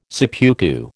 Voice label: "seppuku", American

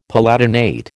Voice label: "palatinate", American

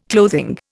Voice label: "clothing", Indian

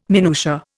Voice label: "minutia", Indian

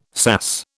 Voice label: "sass", British

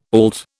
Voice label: "auld", British

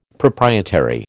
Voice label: "proprietary", American

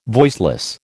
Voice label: "voiceless", American

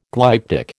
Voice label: "glyptic", American

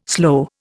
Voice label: "sloe", Indian

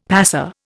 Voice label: "pass", Indian; Dictionary

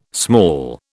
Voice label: "small", British

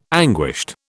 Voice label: "anguished", British

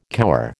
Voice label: "cower", American

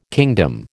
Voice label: "kingdom", American

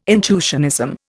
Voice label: "intuitionism", Indian

